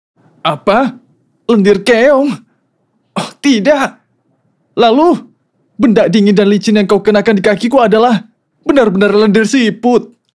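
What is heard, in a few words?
KARAKTER Indonesian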